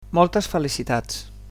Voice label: "birthday", Catalan